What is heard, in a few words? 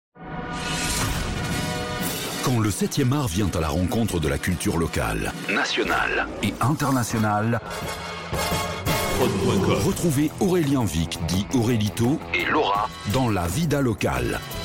Jingle; la Vida Locale